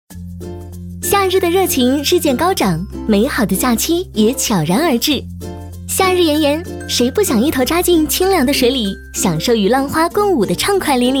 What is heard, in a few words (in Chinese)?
年轻女声